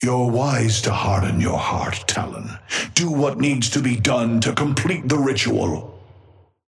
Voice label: male ally start; Patron; orion